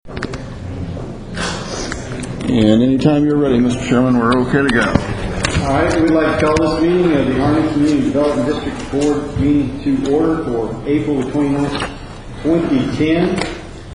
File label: Bad Recording Sample